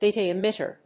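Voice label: "beta emitter", English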